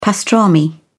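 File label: "pastrami", British English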